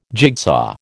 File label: "jigsaw", American